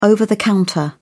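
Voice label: "over-the-counter", British English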